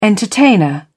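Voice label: "entertainer", English, British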